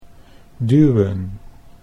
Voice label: "duwen", Dutch

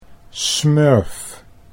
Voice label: "smurf", Dutch

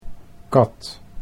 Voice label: "kat", Dutch

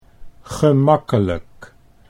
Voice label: "gemakkelijk", Dutch

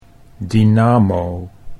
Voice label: "dynamo", Dutch

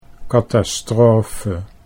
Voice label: "katastrofe", Dutch

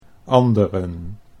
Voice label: "anderen", Dutch

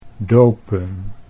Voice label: "dopen", Dutch

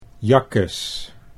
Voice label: "jakkes", Dutch; 'yikes, yuck!'

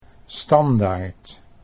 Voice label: "standaard", Dutch

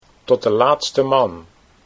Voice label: "tot de laatste", Dutch

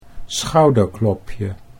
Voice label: "schouderklopje", Dutch

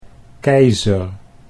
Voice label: "keizer", Dutch

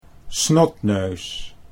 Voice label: "snotneus", Dutch

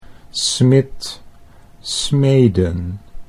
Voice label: "smids smeden", Dutch

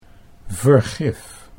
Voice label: "vergif", Dutch

